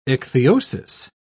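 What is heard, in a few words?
"ichthyosis", British English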